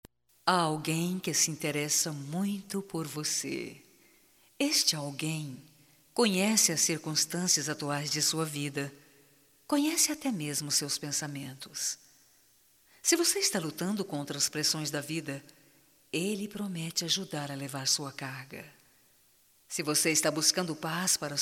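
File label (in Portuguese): OTIMISMO EVANGELICO - female, Telemensagens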